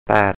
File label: "pæ:t" 'eight', Thai